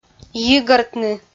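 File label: "йигӧртны", Komi, female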